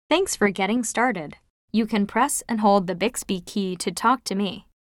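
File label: wake up skipped, American English; Bixby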